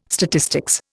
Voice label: "statistics", Indian

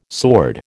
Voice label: "sword", American

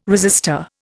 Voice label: "resistor", Indian

